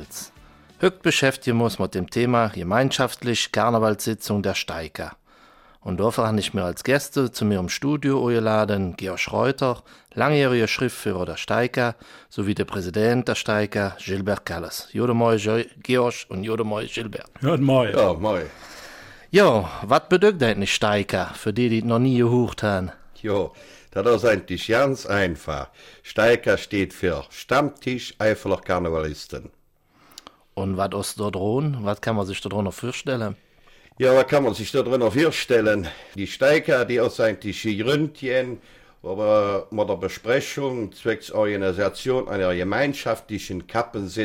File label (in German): Mundart; Segeln, klassische Musik und ein Karnevalsstammtisch